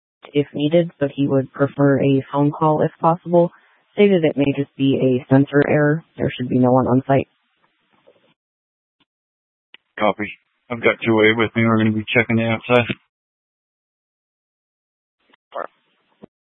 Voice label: Dispatch, scanner

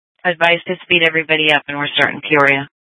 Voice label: Sheriff, scanner P25; Shrf Lakes

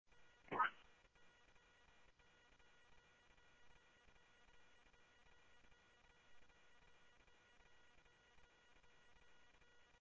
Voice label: Scottsdale Police, scanner audio

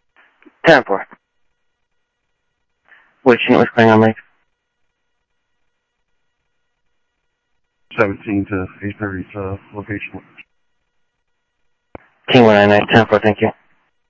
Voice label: scanner audio, Sheriff

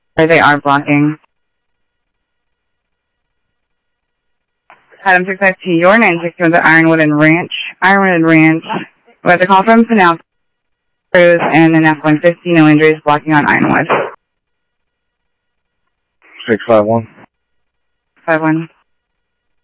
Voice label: scanner audio trunk

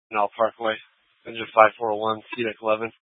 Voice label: FD Dpts VHF 156.240 Patch

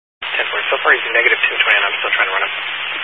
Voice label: Highway Patrol, Phoenix Metro West Area, scanner

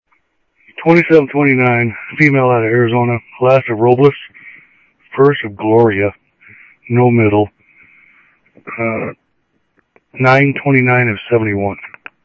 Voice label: Info Sheriff Common, scanner audio trunk; MCSO; ThompsonPeakScot

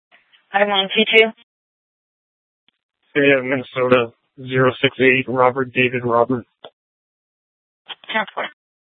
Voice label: Sheriff, scanner; Maricopa County (P25) - Thompson Peak; Shrf Northeast

